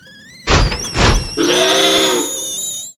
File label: rage